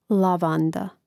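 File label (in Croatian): "lavanda", Croatian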